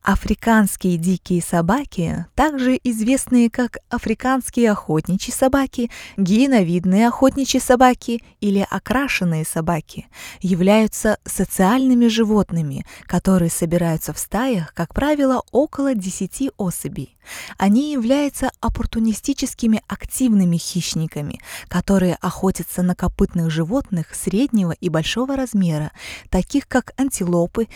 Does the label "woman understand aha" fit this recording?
No